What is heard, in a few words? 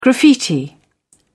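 "graffiti", English, British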